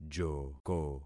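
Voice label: "joko", concatenated syllables; Affixing experiment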